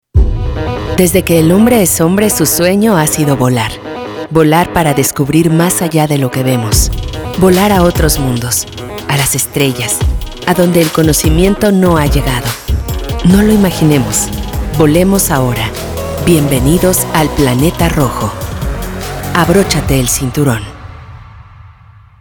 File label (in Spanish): Documental Narrativo Corporativo